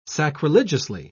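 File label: "sacrilegiously", English, British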